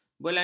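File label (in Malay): "belanja", Malay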